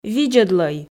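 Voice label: "видзӧдлӧй", Komi, female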